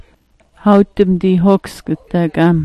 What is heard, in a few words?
"dihooksgn", smalgyax